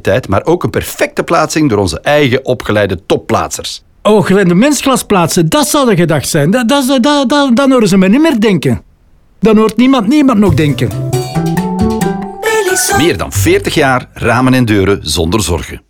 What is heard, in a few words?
Radio NL 30s Privacy; Belisol